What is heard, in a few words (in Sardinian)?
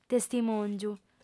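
"testimòngiu", female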